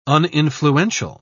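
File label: "uninfluential", British English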